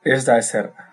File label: you're welcome, basque